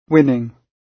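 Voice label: "winning", British English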